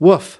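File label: "woof", US English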